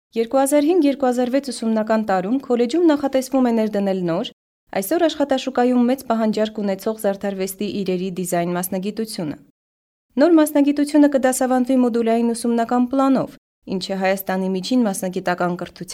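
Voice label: Armenian, female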